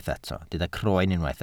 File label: "croen", welsh